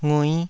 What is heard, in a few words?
"ngui5", taiwanese syllables